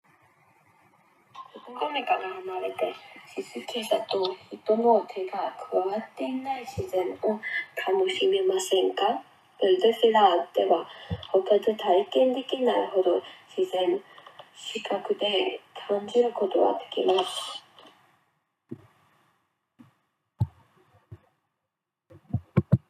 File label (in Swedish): Japanska